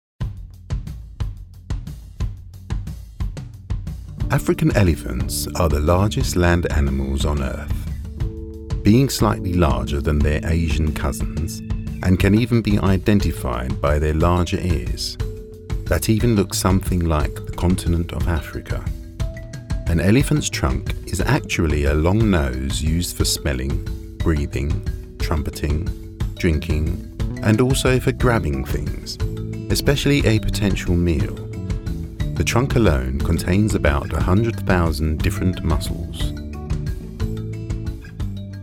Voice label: Wildlife Documentary

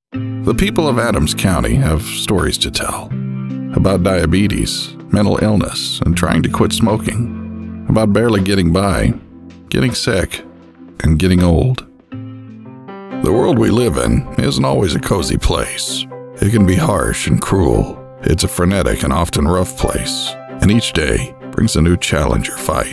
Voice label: Serious Somber, voice

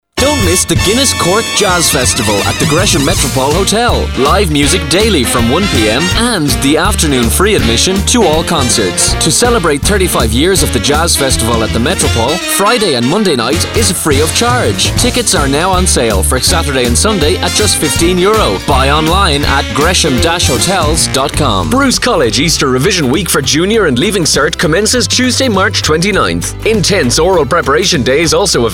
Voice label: adverts

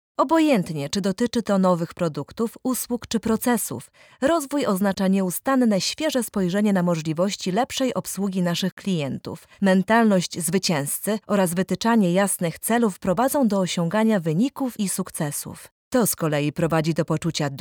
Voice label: Elearning

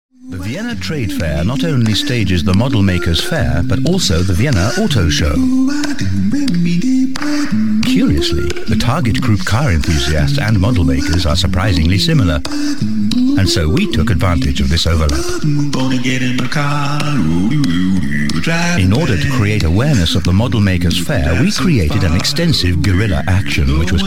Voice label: Podcast; Ogilvy modelmakersfair; English (British)

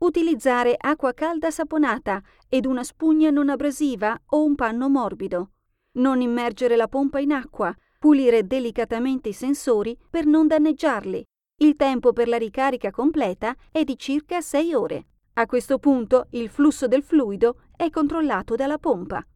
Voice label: Corporate Dry Recording

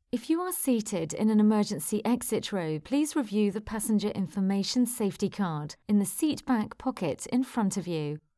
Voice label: In Flight Annoucements

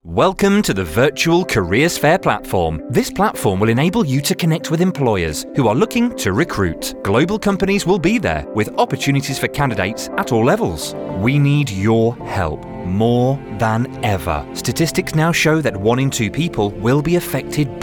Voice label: Corporate

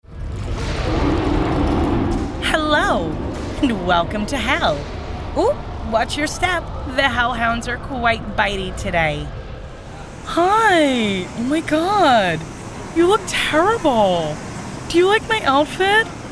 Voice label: Character

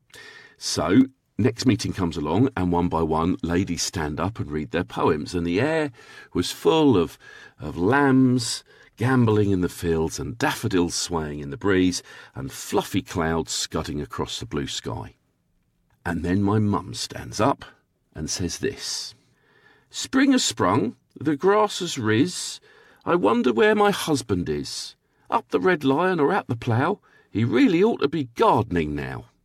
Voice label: Podcast soundbite

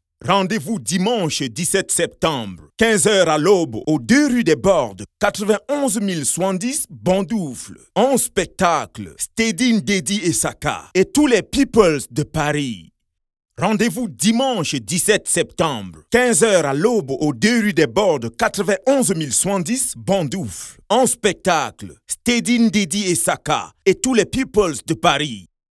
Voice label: Energetic Promotional

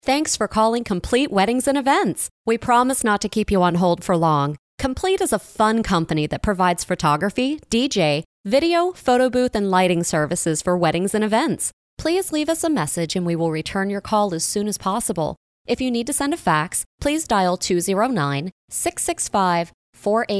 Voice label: On Hold

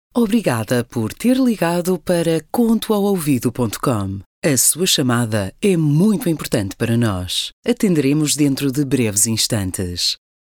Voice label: IVR

